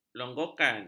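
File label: "longgokan", Malay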